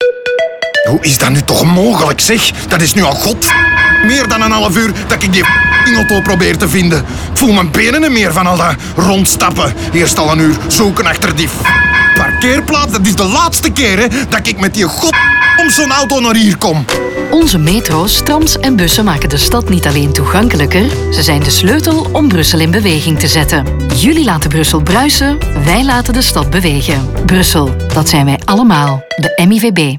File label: Summer Radio NL; MIVB